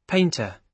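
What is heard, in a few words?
"painter", British English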